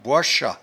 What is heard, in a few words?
"boichat", patois jura